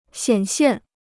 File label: "显现", Chinese